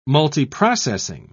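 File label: "multiprocessing", British English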